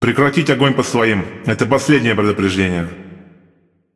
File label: RU Speech Friendly Fire Hit Alert; World Of Warships; USSR Ovechkin